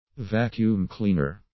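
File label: "vacuum cleaner", English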